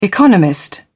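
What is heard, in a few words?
"economist", British English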